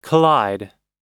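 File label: "collide", American English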